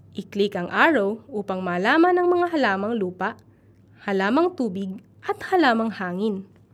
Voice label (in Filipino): i-click ang arrow, voice over; kinabibilangan ko; Paano ang Buhay kung Wala ang Halaman; WMSU